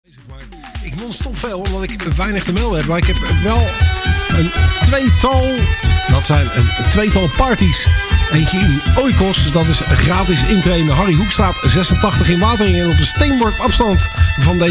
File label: Radio Sample tropical summer party; 80 90s